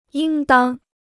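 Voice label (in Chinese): "应当", Chinese; yīng dāng; sollte; müsst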